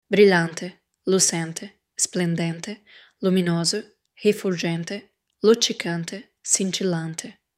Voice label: brilliant in italian